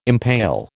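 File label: "impale", American English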